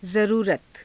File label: "zaruurata", hindi